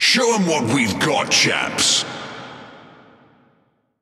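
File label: Speech, British English; World Of Warships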